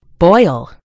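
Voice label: "boil", American English; gcompris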